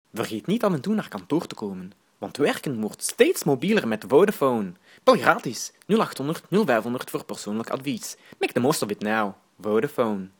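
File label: Flemish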